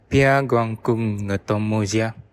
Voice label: meet, bonggi

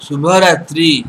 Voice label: good night, odia

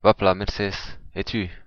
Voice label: fine thanks, occitan

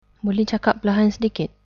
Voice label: slowly, malay

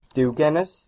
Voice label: goodbye, Cornish

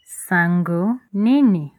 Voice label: how are you, Lingala; Sango nini?; (What's new?)

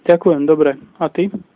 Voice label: fine, slovak

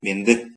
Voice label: hello, kalmyk

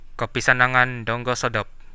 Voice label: good evening, Central Dusun

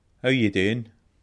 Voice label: how are you, scots

